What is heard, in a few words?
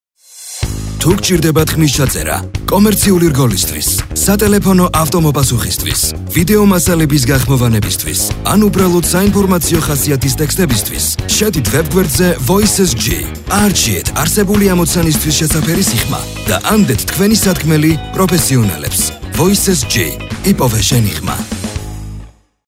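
German, male, commercial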